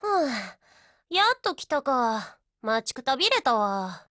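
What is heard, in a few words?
HELLO Japanese; GF Alarm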